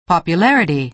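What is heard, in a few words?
"popularity", American English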